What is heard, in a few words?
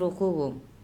"bokhobom", andamanese; to get ready